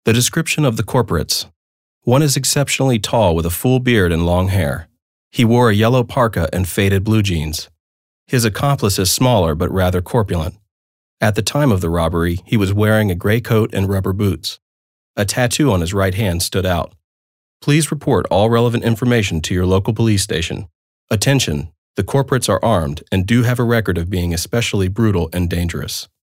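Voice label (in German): American English, Kommentar Neutral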